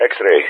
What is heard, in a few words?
"X-ray", player speech; Ka-50; Alphabet; X-ray-continue; DCS